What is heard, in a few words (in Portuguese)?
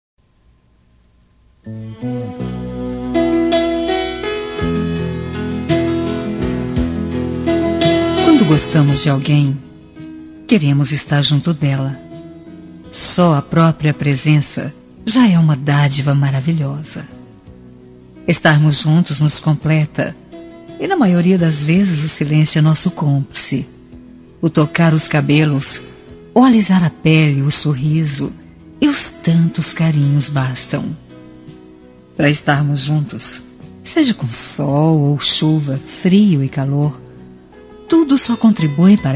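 Rom suave, feminino; Telemensagens; Românticas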